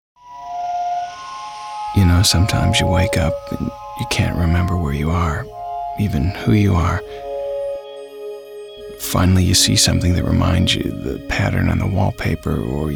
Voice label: natural

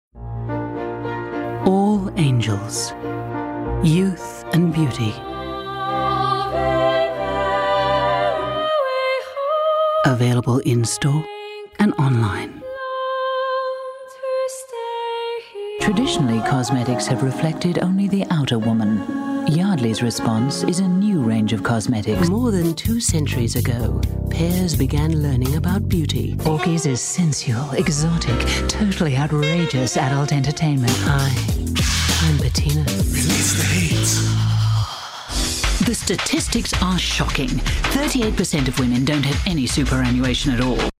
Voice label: soft

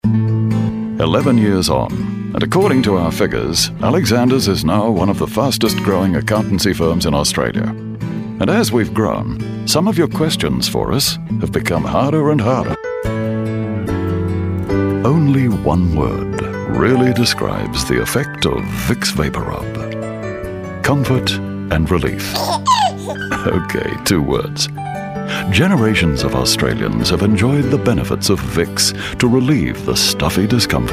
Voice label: neutral

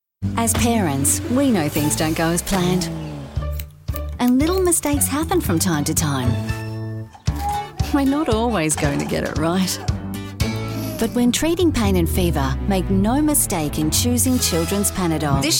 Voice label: Natural

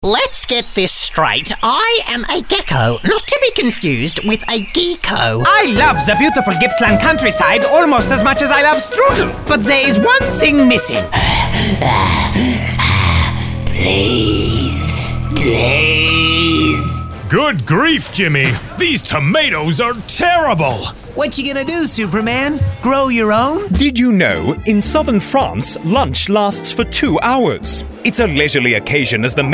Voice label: Character Accent

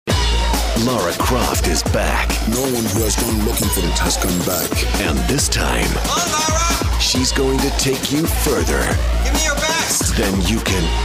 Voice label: character

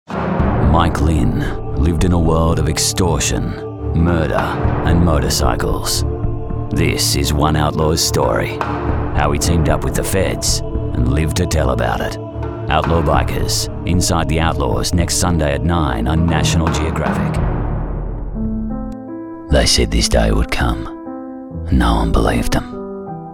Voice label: promos

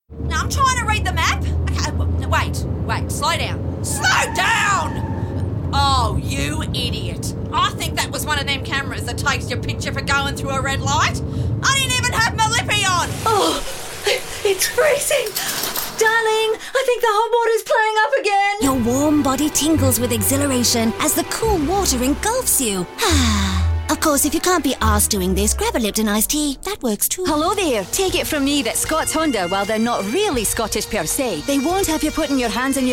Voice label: Character

